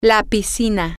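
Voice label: the swimming pool, Spanish, female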